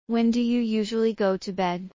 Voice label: fast; assessment